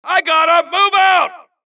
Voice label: supress, dialogue Allies; mohaa; Mission 2

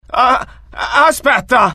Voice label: fear, IT; mohaa; dialogue

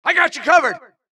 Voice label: supress, dialogue Allies; mohaa; Mission 2

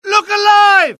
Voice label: alert, dialogue UK; mohaa

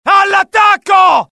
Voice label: alert, dialogue IT; mohaa; attack

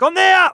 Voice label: fear, dialogue; mohaa

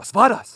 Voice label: curious; mohaa; dialogue

